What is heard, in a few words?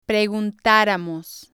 "preguntaramos", spanish